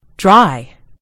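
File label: "dry", American English; gcompris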